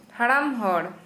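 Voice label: Santali, female, old person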